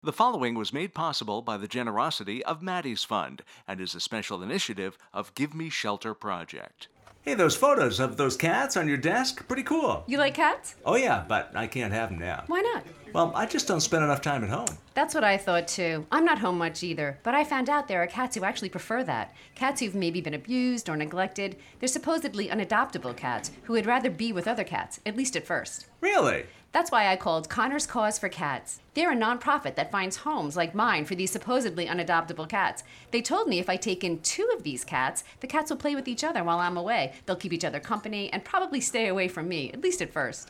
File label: conversation; Conners Cause